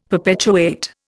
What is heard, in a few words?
"perpetuate", Indian; Dictionary